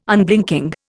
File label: "Unblinking", Indian